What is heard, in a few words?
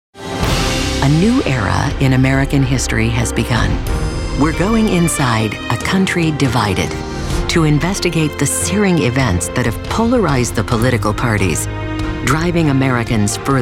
Documentary Inshow